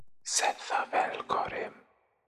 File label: incantations whispers masc forward standard; psfx